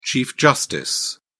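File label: "chief justice", British English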